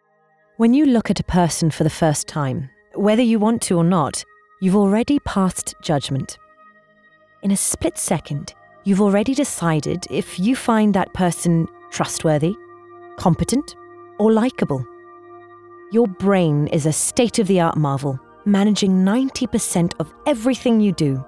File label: DOCUMENTARY; Unconscious Mind